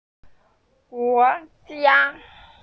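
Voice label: "guo2jia1", Mandarin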